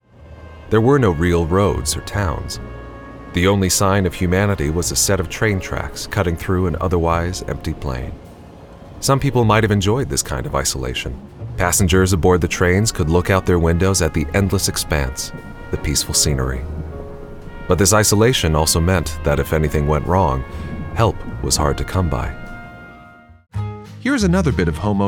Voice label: narration